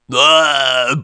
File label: zombie; Mobiclic46